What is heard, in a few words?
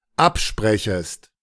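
"absprechest", German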